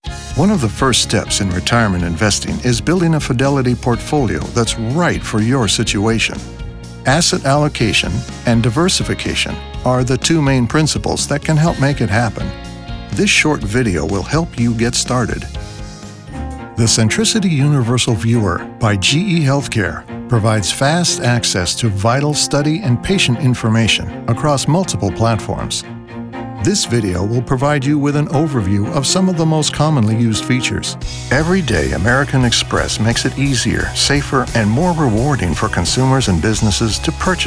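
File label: Corporate Narration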